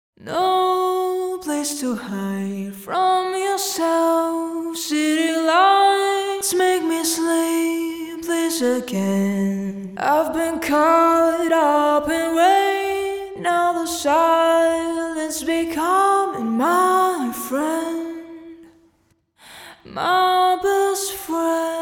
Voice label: Vocal Recording House of Radio Ukraine